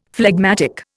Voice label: "phlegmatic", Indian; Dictionary